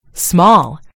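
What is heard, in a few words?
"small", British English; gcompris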